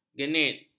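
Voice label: "genit", Malay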